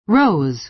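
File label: "rose", American English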